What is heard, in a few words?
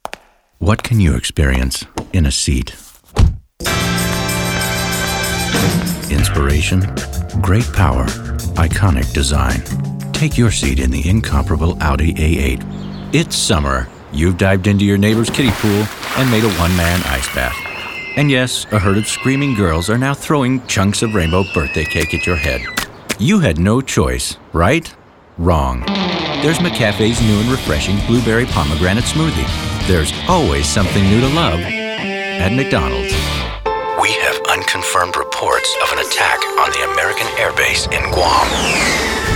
CommDemo